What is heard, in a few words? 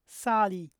voiceless alveolar initial, polish